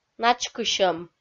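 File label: "начкысьӧм", Komi, female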